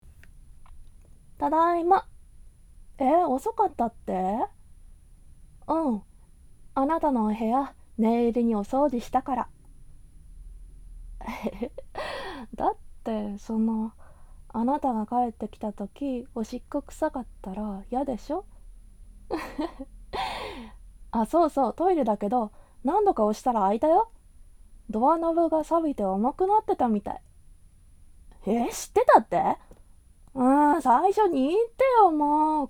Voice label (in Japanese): ボイスのみ